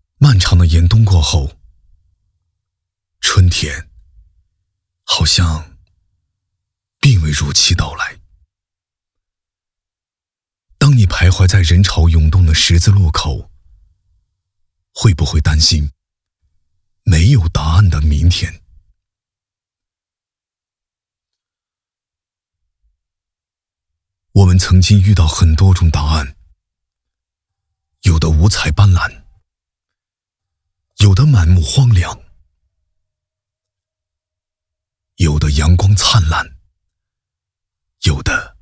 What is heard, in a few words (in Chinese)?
低沉讲述; 低沉讲述漫长的严冬过后